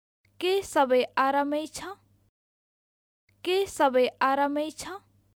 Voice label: Is everything fine, Nepali